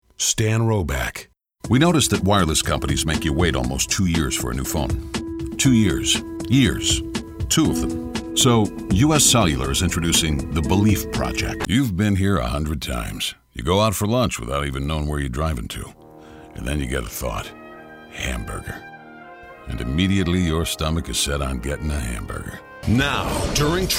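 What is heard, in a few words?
male, Commercial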